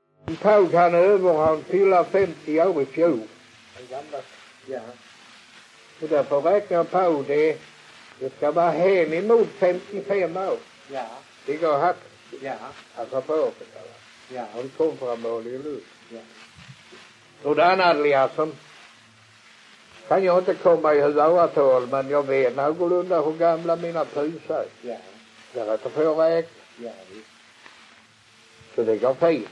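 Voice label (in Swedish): Allerum Skåne, dialekter; Folkminnen; Svenska sagor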